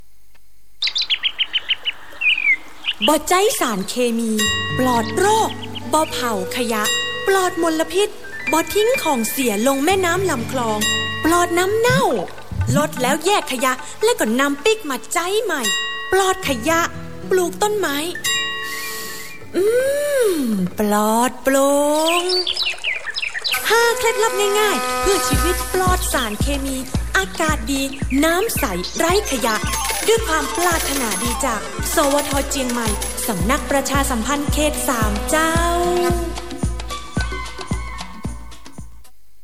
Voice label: radio environment